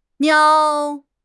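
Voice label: "niao3", Mandarin Chinese; HSK3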